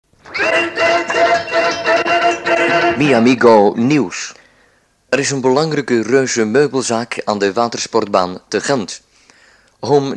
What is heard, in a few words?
commercial